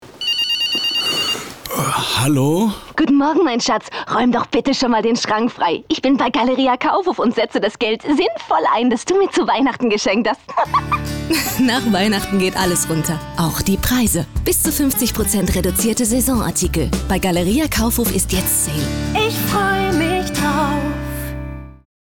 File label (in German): Dynamisch